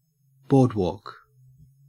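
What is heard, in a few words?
"boardwalk", English, Australian accent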